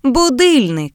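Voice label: alarm clock, Ukrainian; gcompris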